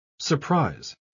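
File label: "surprise", English word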